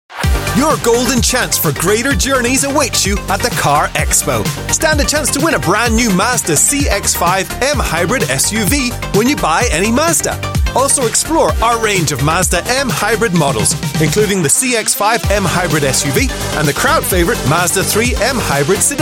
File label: Asian English; Mazda